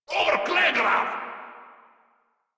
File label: Surprised, Grineer Male; Warframe